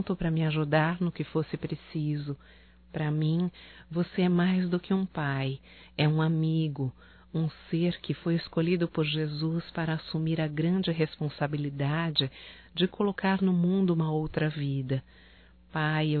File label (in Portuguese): DESCULPAS PAI (F); fem; Telemensagens 2017